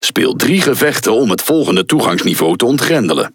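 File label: NL Speech Access Level Unlock, Dutch; World Of Warships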